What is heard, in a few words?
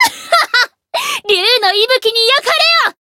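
Voice limit break, Japanese; tiramisu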